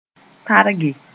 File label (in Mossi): "targe", moore